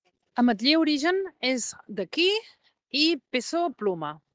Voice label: Voice message